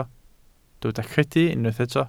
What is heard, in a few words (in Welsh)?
"credu" Welsh